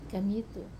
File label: "kamitu", anchor, wamesa